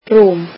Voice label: icelandic bed

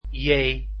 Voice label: "j", Dutch alphabet

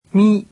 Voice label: japanese alphabet "mi"

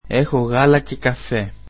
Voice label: greek I have milk and coffee

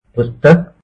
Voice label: book, hindi

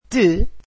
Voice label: "you", Portuguese

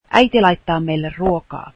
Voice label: finnish her mother cooks for us

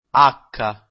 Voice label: italian alphabet k

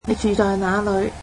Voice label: cantonese Where do you live

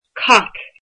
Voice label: "cat", Irish

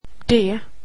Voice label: swedish alphabet d

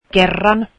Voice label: once, finnish

